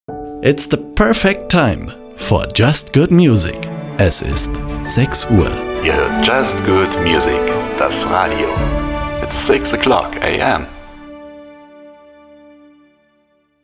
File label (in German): Zeitansage 6 Uhr; am Vormittag